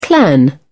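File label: "clan", British English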